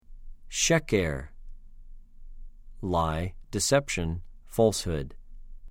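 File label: "שֶׁ֫קֶר", Hebrew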